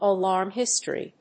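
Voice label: "Alarm History", English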